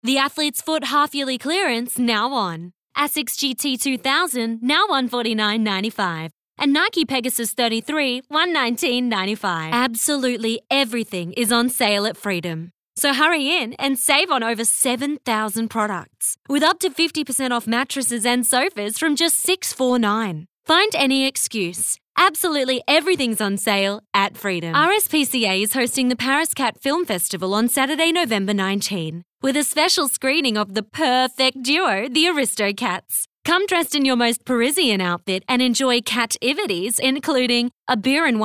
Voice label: Soft Sell

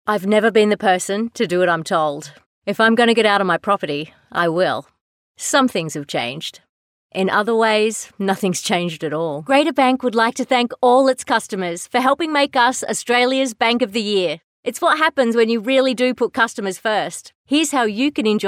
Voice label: Natural

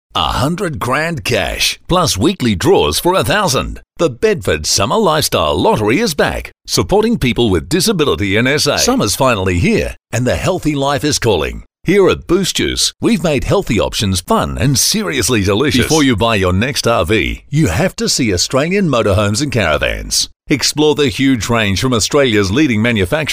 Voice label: Soft Sell, voice-overs

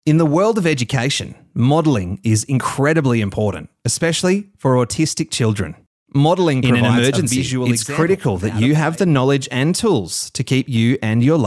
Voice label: Narration, voice-overs

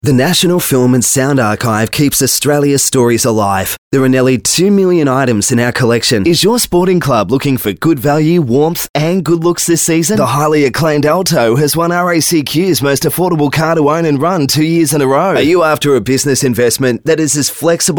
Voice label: Soft Sell, voice overs

